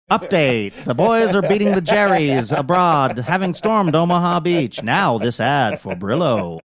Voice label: update the boys are beating the jerries old timey radio newscaster